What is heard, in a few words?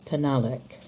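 "Penhallick", English; place-names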